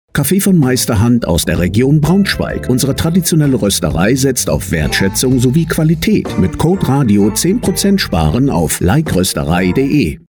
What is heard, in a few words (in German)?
radiowerbung; like roesterei; antenne niedersachsen